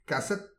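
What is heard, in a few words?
"kaset", Breton; petit precis de prononciation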